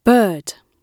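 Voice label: "bird", British English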